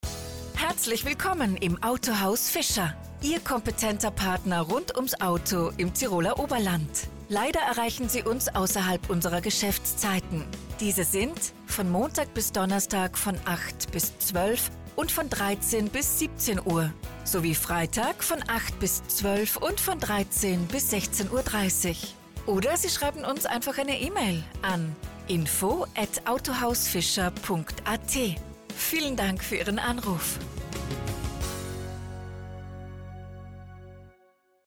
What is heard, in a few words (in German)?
Nachtansage; Autohaus Fischer; telefonansagen sonstige